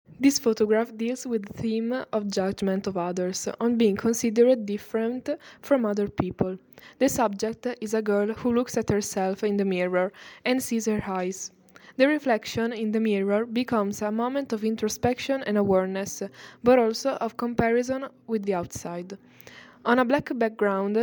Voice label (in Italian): audio malocchio inglese